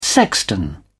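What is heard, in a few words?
"sexton", British English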